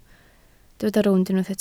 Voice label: "rownd", Welsh

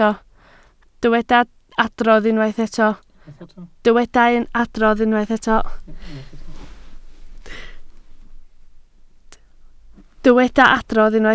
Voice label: "adrodd", Welsh

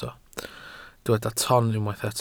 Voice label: "ton", Welsh

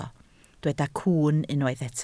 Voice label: "cwwn", Welsh

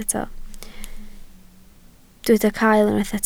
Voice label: "cael", Welsh

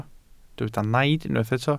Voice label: "naid" Welsh

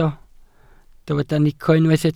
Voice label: "nico", Welsh

